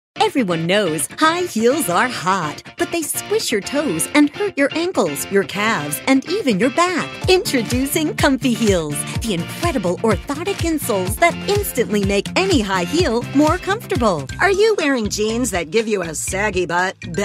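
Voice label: English US, female, infomercial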